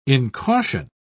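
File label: "incaution", British English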